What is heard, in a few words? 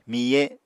"miye", Apatani